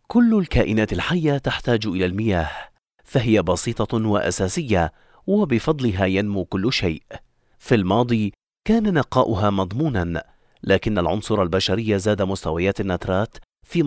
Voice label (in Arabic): arabic commercial